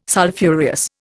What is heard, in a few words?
"Sulphureous", Indian